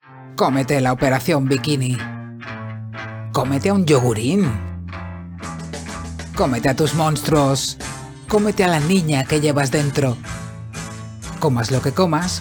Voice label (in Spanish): PUBLI, mujeres 35 a 50